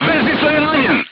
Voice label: voice message machine gunner wounded, serbian crew tanks